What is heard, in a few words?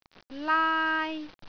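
"laai1", Cantonese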